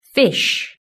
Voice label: "fish", british english